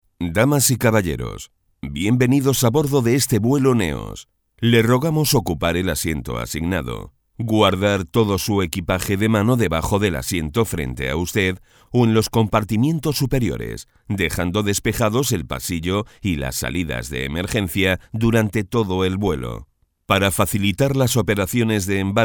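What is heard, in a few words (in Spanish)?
otros España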